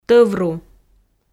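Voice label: "тӧвру", Komi, female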